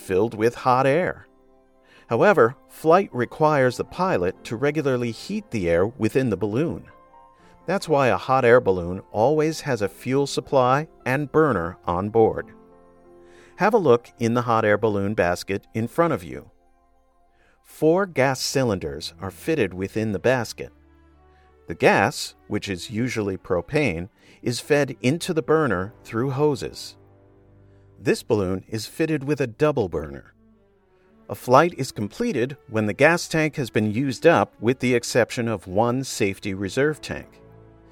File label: Audio Guides Hot Air Balloons